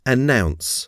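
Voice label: "announce", English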